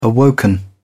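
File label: "awoken", British English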